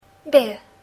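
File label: "beer", English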